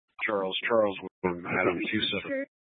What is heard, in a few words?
Sheriff Dispatch, scanner